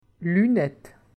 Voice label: "lunette", French

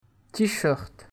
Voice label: "t-shirt", French

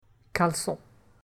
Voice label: "calecon", French

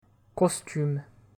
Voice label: "costume", French

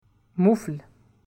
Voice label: "moufle", French

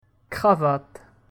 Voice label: "cravatte", French

